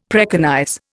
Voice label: "preconize", Indian; Dictionary